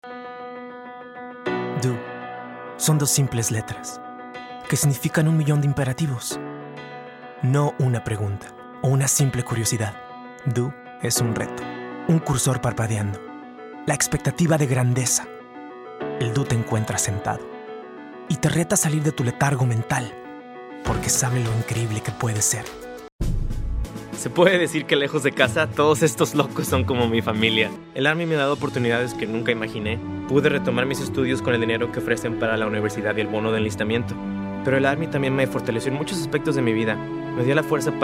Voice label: Spanish; Commercial Demo; Voiceover